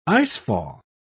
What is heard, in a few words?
"icefall", pronounce